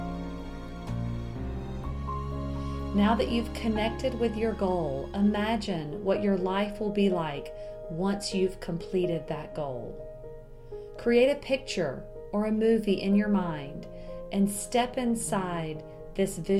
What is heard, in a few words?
Achieving Goals Meditation; Sample Session 9